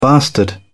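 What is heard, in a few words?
"bastard", British English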